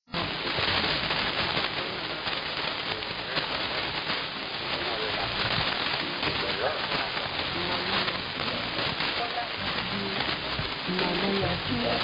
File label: 9.65 MHz French; Radio Guinea